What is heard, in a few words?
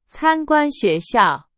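Chinese, example sentence; hsk2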